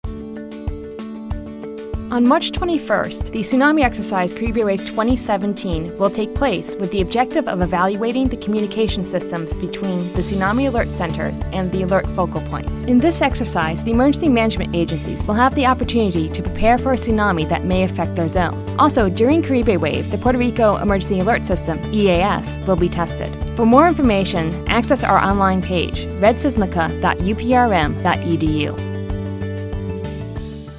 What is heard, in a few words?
Radio Ad; Caribewave2017